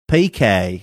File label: "piqué" texas drawl